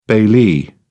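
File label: "bailee", British English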